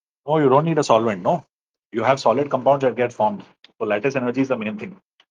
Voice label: voice message